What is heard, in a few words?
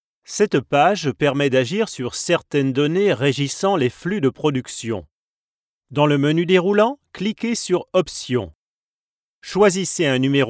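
E-LEARNING, male voice over, French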